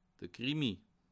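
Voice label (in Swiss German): "krimi", Bas Rhin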